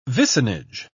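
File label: "vicinage", British English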